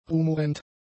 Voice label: "rumorend", German; adjectives

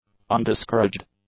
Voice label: "undiscouraged", English; adjectives

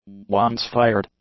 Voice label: "once-fired", English; adjectives

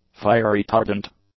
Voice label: "fire-retardant", english; adjectives

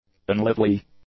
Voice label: "unlively", English; adjectives

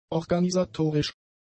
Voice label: "organisatorisch", German; adjectives

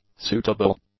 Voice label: "suitable", English; adjectives

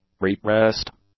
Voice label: "repressed", English; adjectives

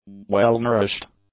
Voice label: "well-nourished", english; adjectives

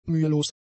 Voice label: "mühelos", German; adjectives

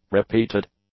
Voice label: "repeated", English; adjectives

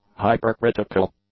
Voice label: "hypercritical", English; adjectives

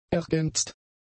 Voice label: "ergänzt", German; adjectives

